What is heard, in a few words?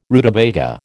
"rutabaga", American